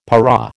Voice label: "para", American